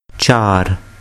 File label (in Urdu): "chaar", Urdu